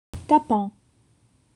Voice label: "tapant", French